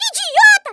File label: "STUPID", Portuguese; Worms Armageddon